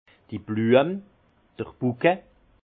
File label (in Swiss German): "bluem", Alsace; vin